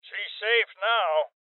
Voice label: Male Old; rebel cops 2019; Voices CopEvacuated